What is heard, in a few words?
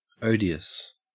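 "odious", Australian English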